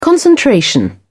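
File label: "concentration", British English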